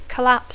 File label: "collapse", English